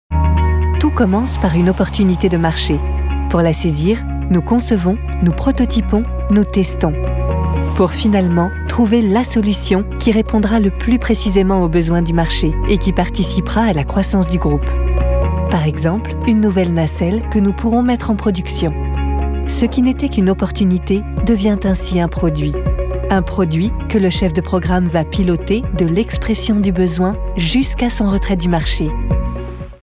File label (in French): Film Institutionnel, voix off; Safran